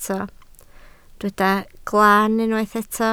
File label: "glan", Welsh